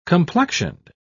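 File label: "complexioned", British English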